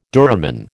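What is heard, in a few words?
"duramen", American English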